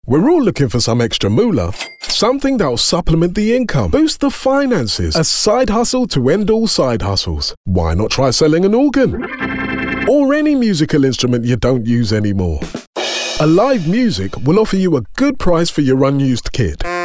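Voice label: Light, male; Alive Music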